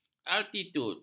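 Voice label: "altitud", Malay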